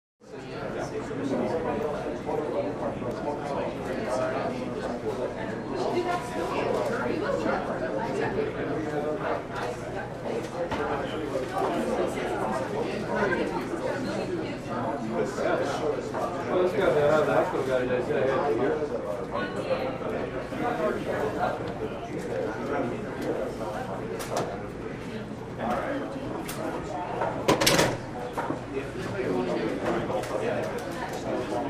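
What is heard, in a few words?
conversations and work in the office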